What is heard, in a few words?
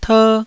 "ter1", Taiwanese